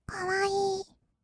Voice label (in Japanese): 「かわいい」, kawaii voice; 1200 kawaii voice!!; 色々なワンショット